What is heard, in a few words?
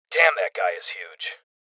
Voices Heavy Spotted Male